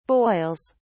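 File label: "boils", British English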